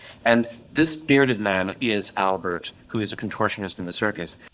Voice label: Voice Acting es M